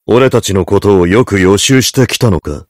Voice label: heavy good job

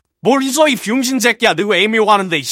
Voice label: jeers; voice packs; scout

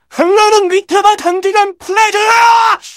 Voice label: scout battle cry

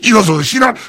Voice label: heavy positive vocalization

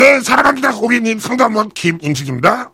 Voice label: heavy battlecry

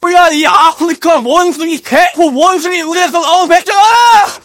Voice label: scout jeers

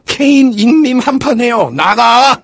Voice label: engineer cheers

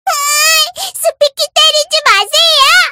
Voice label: jeers; spyro

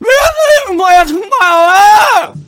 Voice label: scout jeers

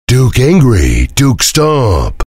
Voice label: soldier rage; voice packs; soldier nukem

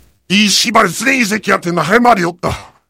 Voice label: heavy cheers

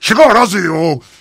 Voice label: heavy yes

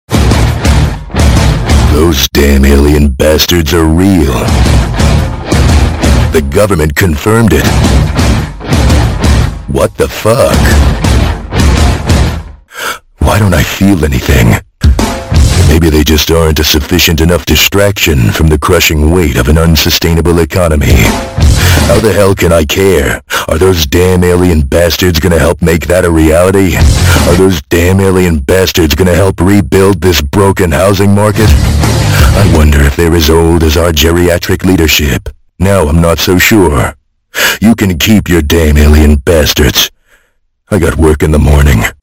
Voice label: soldier battle cry

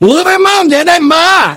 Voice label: negative vocalization; voice packs

